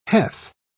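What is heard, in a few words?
"heth", English, British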